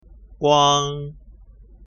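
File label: "uang", pinyin; ภาษาจีนกลาง; สำเนียงปักกิ่ง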